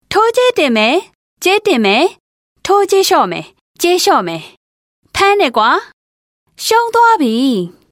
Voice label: F Burmese Videogame

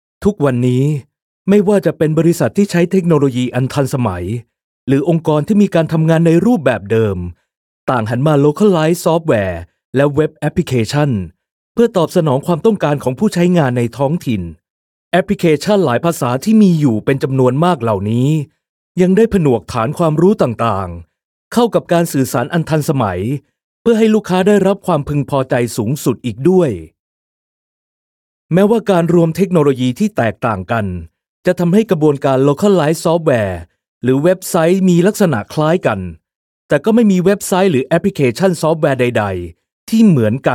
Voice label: Thai Male Commercial

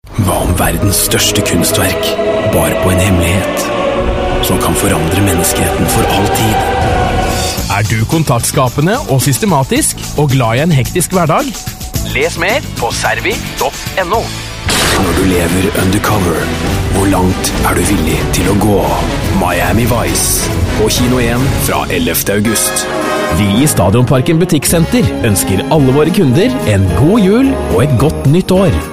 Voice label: Norwegian TVC, Male

